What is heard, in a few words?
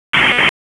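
FIRE Operations, p25; FIRE OPS 1; wake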